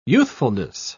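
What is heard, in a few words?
"youthfulness", British English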